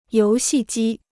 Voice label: "游戏机", Chinese

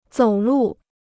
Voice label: "走路", Chinese

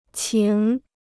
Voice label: "情", Chinese

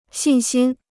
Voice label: "信心", Chinese

